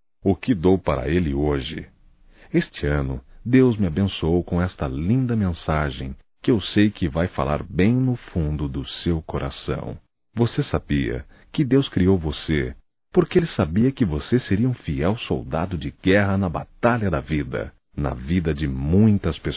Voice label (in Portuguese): Aniversário Irmão Masculino; Aniversarios; Telemensagens 2017